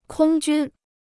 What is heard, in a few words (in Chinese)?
"空军", Chinese